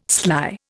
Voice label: "sly", Indian

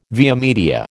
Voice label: "via media", American

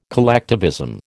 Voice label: "collectivism", American

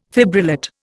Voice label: "fibrillate", Indian

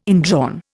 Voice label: "indrawn", Indian

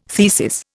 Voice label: "thesis", Indian

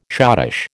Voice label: "schottische", American

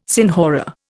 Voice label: "senhora", Indian

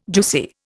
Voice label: "juicy", Indian

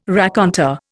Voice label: "raconteur", Indian

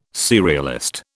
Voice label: "serialist", British

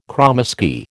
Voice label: "kromesky", American

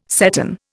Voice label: "seton", Indian

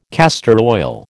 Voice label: "castor oil", American

